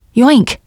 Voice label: generic, teengirl, voice; player; survivor

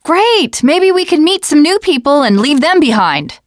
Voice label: teen girl

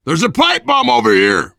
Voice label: spot grenades, voice, biker; Left 4 Dead 2; left4dead2 dlc3; survivor

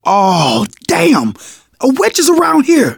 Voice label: heard witch, manager, survivor, voice; Left 4 Dead 2; left4dead2 dlc3

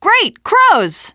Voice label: gravel hill, teengirl

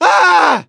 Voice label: hurt critical, voice; gambler

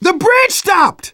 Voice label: hitting bridge button, manager, survivor, voice; Left 4 Dead 2; left4dead2 dlc2

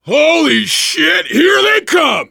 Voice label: incoming, voice, biker; Left 4 Dead 2; survivor

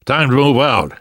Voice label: move on, survivor voice

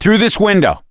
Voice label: misc directional, voice; gambler

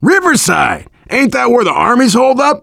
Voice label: goal, voice, biker; Left 4 Dead 2; left4dead2 dlc3; survivor

